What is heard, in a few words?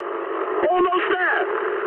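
English near waypoint mood high; speech; War Thunder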